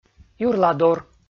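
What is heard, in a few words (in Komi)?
"юрладор" Komi, male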